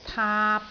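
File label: "taap3", Cantonese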